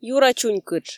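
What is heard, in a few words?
"юра чунькытш", Komi, female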